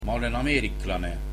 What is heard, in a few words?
Im American, estonian